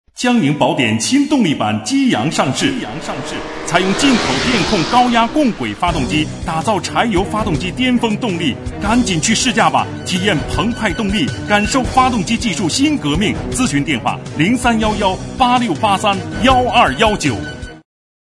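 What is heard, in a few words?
Mandarin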